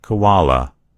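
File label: "koala", US English; gcompris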